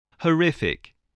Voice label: "horrific", voice spelling